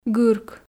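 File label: "гырк", Komi, female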